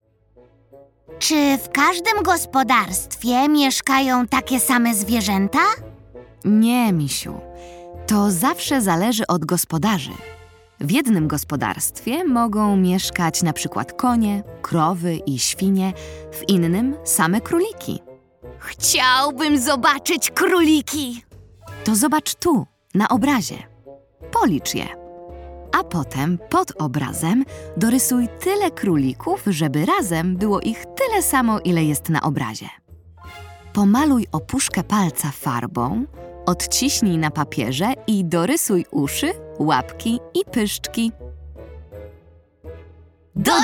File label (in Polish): narracja; czasopismo mis; lato; do dziela rozmowa